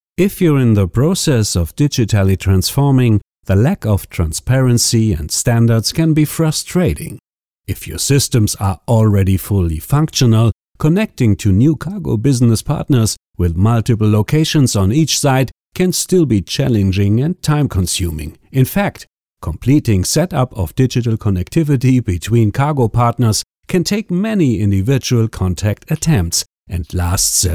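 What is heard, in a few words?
German English male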